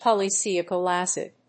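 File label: "polysialic acid", English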